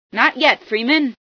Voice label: not yet, female

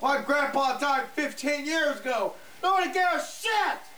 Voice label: Chat

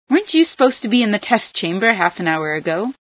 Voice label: somewhere, female

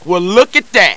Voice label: Cheer; CarlJohnson

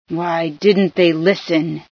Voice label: scan, female

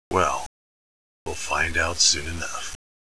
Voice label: seasoned grunt

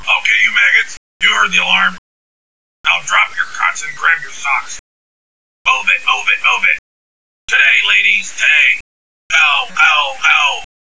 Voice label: drill sergeant

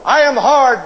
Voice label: chat; sgthartmann

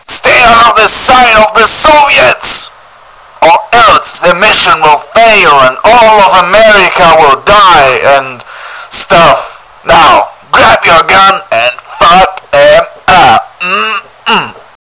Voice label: radio; murica

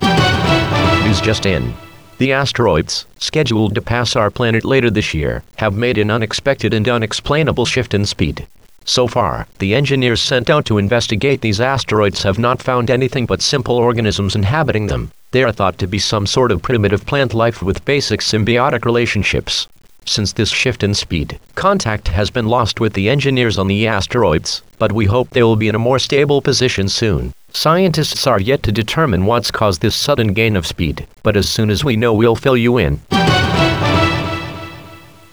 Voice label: news vox

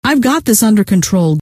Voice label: got control, scientist female

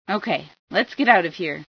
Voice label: ok get out, female

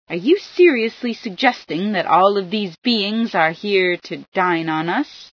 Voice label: dinner, female sci

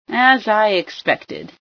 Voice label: as expected, female sci

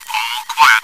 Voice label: chat

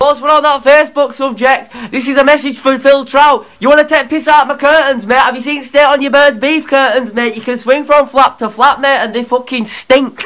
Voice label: british

